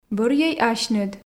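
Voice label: "бӧрйӧй асьныд", Komi, female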